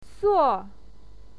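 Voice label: "suo", Mandarin Chinese, fourth tone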